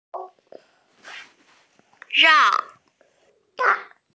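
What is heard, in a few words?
"rang4", Chinese, F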